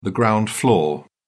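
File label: "ground floor", British English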